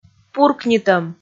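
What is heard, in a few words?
"пуркнитӧм", Komi, female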